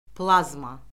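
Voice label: "plazma", Azerbaijani; Orfoqrafiya Lüğəti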